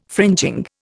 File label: "fringing", Indian